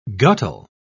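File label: "guttle", British English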